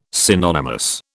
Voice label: "synonymous", British